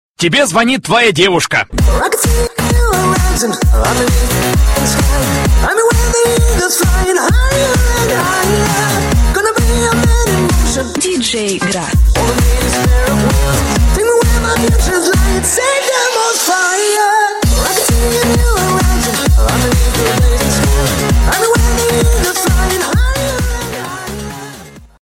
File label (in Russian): girl zvonit